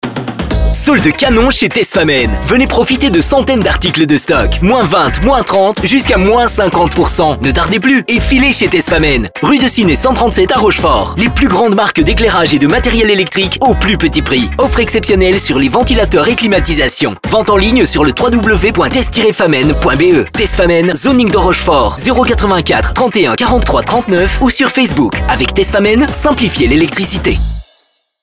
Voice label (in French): Voix Hom Tres Jeune